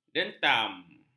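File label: "dentam", Malay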